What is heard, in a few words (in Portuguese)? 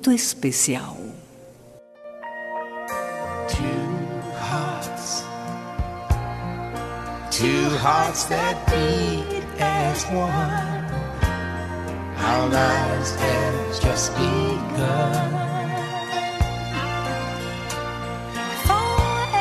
MENSAGEM DE AMIZADE FEM